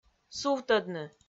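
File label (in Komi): "сувтӧдны", Komi, female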